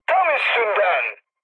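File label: bomb success, mood high, Turkish; War Thunder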